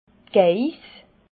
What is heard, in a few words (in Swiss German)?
"geiss", alsace